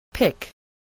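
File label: "pick", spoken word, spelling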